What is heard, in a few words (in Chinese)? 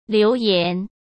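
"留言", Mandarin Chinese; hsk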